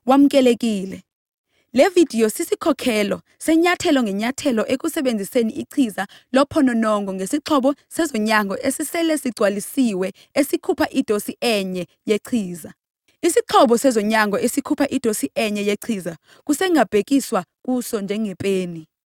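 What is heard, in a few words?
Xhosa, voice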